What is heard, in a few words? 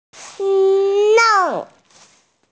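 "nen4", Mandarin Chinese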